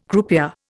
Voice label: "croupier", Indian

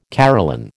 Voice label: "Caroline", American

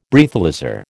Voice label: "Breathalyser", American

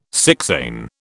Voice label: "sixain", British

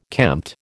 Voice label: "kempt", American

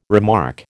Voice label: "remark", American

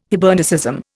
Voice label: "Hibernicism", Indian

